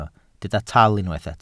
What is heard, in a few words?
"tal", welsh